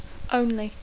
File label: "only", English